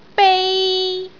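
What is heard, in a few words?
"bei1", Cantonese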